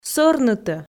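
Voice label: "сӧрнытӧ", Komi, female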